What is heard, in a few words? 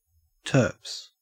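"turps", Australian English; pronunciations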